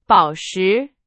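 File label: Chinese, vocabulary; hsk4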